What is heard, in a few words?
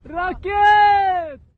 afghan soldier yells rocket